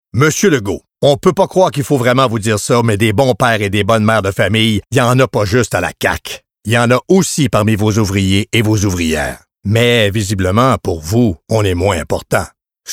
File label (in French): radio; ouvriers; fr